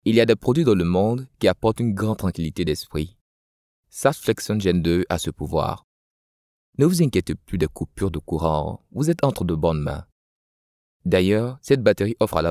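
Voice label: African French, male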